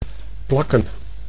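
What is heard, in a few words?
"plakken", Dutch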